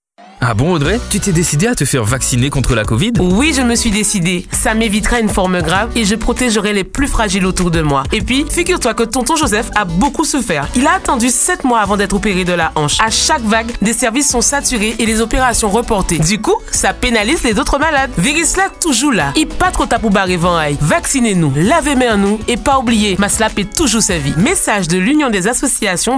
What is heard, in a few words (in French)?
spot radio promotion vaccination